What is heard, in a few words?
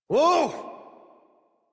Horse Stop Adult; Warframe; Duviri